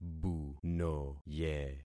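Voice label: "bunoye", concatenated syllables; Affixing experiment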